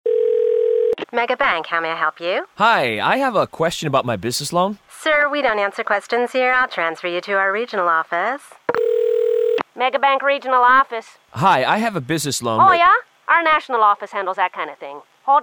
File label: Food Chain Radio; Homestreet